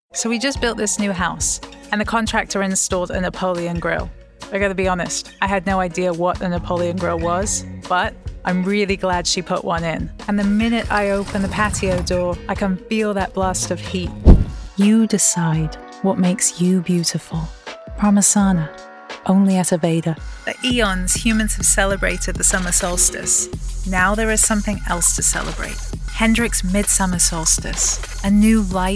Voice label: Commercial